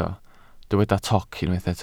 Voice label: "toc", Welsh

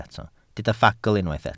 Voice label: "ffagl" Welsh

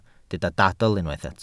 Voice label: "dadl" Welsh

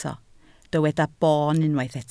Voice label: "boon" Welsh

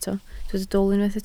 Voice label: "dwl", Welsh

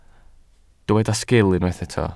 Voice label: "sgil", Welsh

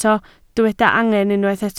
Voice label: "angen", Welsh

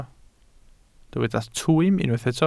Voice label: "twym", Welsh

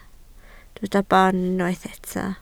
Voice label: "boon" Welsh

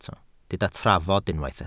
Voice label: "trafod" Welsh

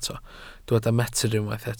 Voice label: "metr", Welsh

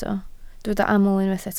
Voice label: "aml", Welsh

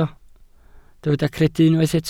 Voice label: "credu" Welsh

